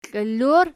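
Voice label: "kiili" plural, Archi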